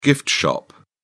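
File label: "gift shop", British English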